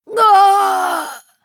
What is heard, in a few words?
Female Draenei Death